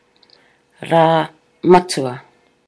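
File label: "ra matua", Maori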